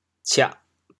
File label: "ciah4", chaoyang